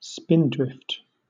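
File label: "spindrift", British English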